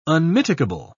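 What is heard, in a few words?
"unmitigable", British English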